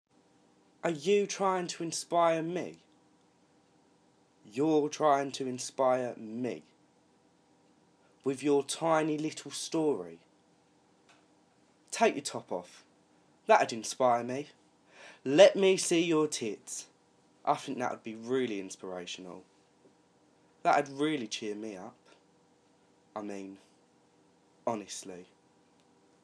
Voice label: monologue